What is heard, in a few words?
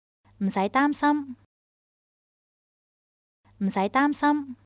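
No worries, Chinese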